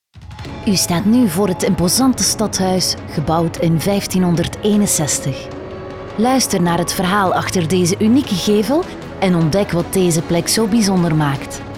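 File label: flemish audio guides, female; flemish voice actors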